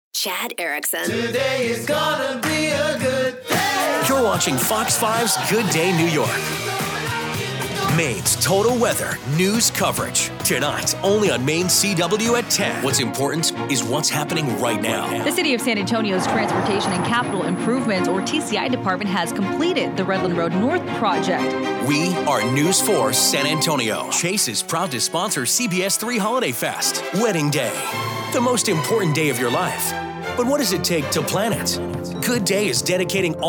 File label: tv affiliates men